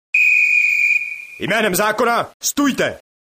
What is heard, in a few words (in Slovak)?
sms hlásky cz